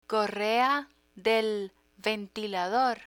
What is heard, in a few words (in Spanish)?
correa de ventilador, Spanish; picture dictionary; learn spanish